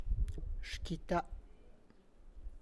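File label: tlacochahuaya, Zapotec; xhkita'; my pumpkin; (Spanish) mi calabaza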